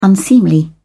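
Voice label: "unseemly", British English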